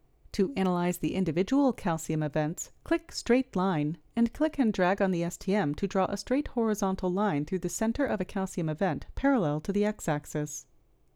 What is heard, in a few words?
Voiceover